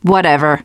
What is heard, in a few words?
teen girl